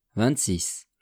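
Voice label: "vingt-six", French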